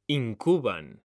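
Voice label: "incuban", Spanish, male; mekanta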